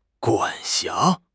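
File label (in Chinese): "管辖", Mandarin Chinese; HSK6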